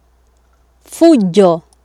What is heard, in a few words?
"fujo", Swahili